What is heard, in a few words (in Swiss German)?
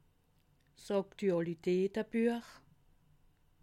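"aktualitatebuech", Alsatian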